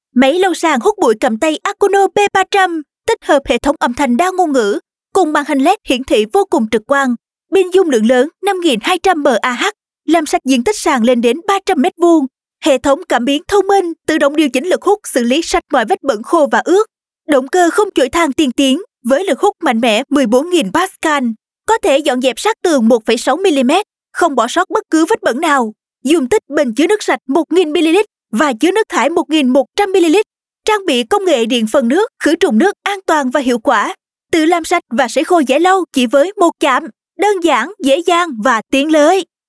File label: Vietnamese South female; Akono P300